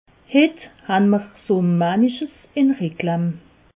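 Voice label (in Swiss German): "reklam", Alsatian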